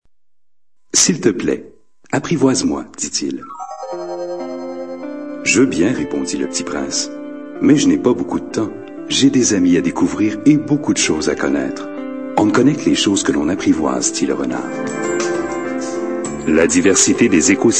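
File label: French male voice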